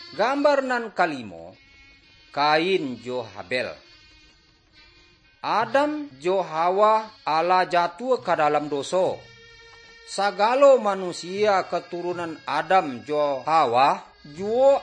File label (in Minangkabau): good news minangkabau